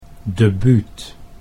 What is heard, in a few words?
"debuut", Dutch